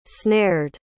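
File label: "snared", English pronunciation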